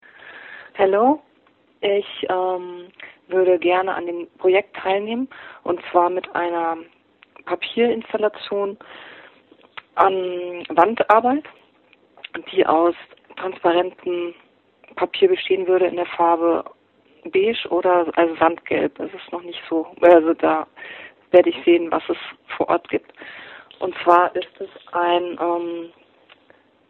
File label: Voicemail